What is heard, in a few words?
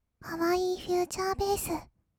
kawaii future bass, kawaii voice; ASMR; 音楽ジャンル; 1200 kawaii voice!!